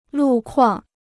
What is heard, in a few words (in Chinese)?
"路况", Chinese